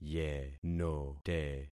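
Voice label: "yenote", concatenated syllables; Affixing experiment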